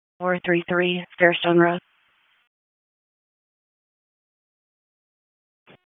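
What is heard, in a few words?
Wake Forest Police Dispatch, p25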